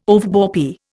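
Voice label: "overbore", Indian English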